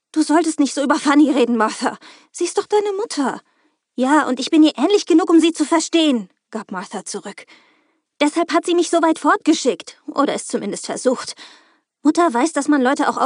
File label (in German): Hoerbuch Standpauke; Hörbücher